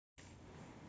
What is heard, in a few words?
voice message